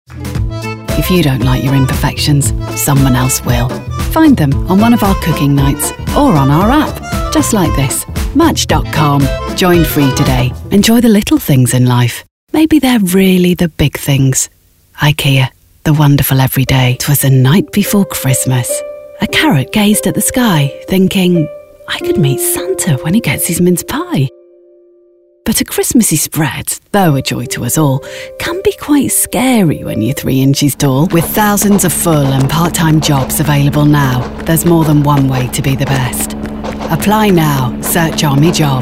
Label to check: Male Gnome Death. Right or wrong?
Wrong